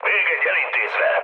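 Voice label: player killed enemy, mood low, Hungarian; speech; War Thunder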